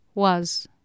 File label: "waes", Anglo Saxon